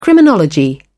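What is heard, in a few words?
"criminology", British English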